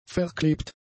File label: "verklebt", German; adjectives